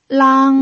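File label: "lang", Mandarin Chinese pinyin, tone 1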